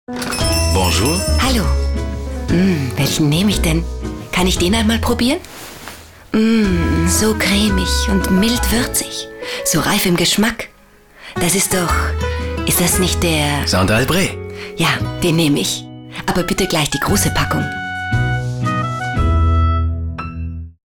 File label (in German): Frau